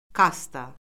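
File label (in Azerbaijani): "kasta", Azerbaijani; Orfoqrafiya Lüğəti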